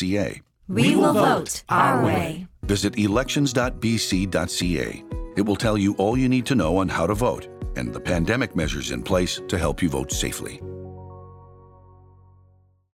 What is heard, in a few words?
Radio Ad Advance Voting